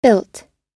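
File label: "built", US English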